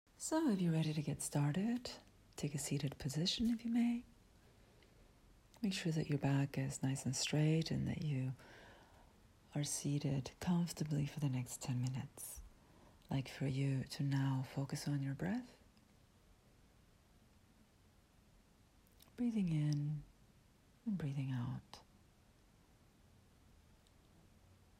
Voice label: Concentration Training Meditation English